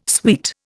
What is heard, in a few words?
"suite", Indian